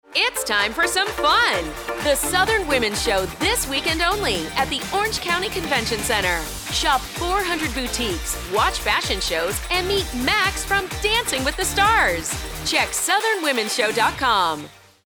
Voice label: Radio Spot; Southern Womens Show